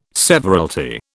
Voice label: "severalty", British

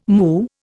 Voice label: "moo", Indian

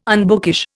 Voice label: "unbookish", Indian

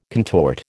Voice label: "contort", American

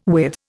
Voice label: "with", Indian

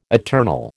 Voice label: "eternal", American

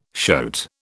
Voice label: "shoat", British

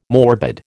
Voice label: "morbid", American

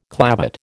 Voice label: "clavate", American

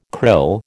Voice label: "krill", American

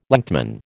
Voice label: "lengthman", American

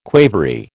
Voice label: "quavery", American

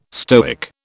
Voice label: "Stoic", British

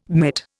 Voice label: "mitt", Indian

